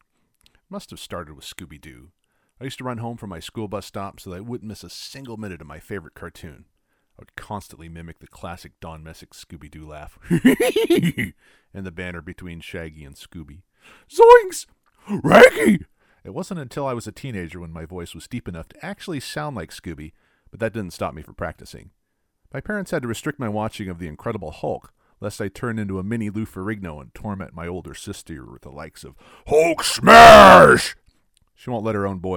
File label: Impersonations